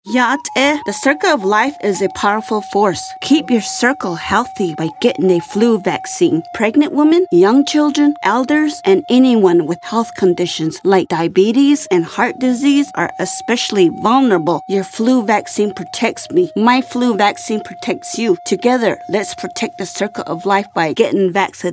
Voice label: navajo female; flu; psa; radio; native-and-alaskan-psas

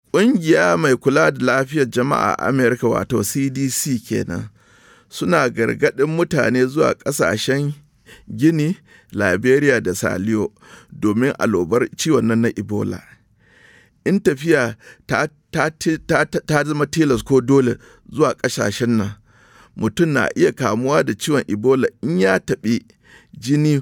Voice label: Hausa Message, radio spots; ebola

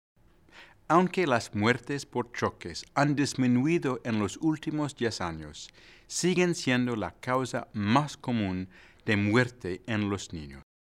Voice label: Car Safety Spanish; Seguridad Ninos Auto

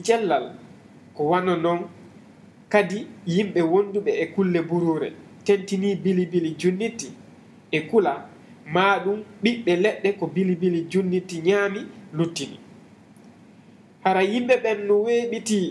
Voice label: fulani, ebola radio spots; vhf

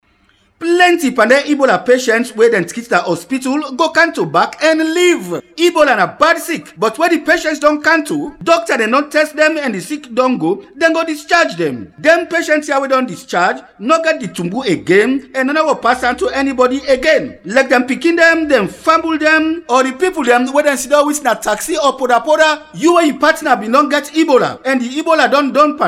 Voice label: Krio, radio spots; ebola

